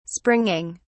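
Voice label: "springing", English, British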